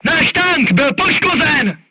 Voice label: voice message player tank hit, crew tanks czech